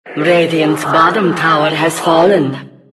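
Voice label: announcer tower fall bot; Diretide 2012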